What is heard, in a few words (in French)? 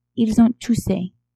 "ils ont toussé", French